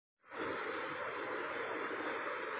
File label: Voice Note